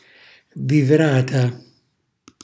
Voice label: "vivrata", calabrese; cucinacalabrese; glossario

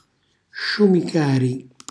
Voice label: "sciumicari", Calabrese; cucina calabrese

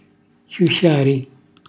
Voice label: "xjuxxjari", Calabrese; cucinacalabrese; glossario

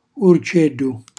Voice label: "urcellu", Calabrese; uccello; cucinacalabrese; glossario

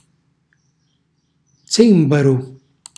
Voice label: "zìmbaru", calabrese; maiale; cucinacalabrese; glossario

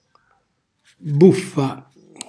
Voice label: "buffa", Calabrese; rana

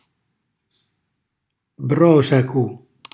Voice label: "brosacu", Calabrese

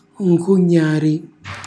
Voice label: "ncugnari", Calabrese; cucina calabrese; Glossario

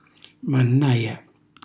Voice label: "mannaja", Calabrese; mannaggia; cucina calabrese; Glossario

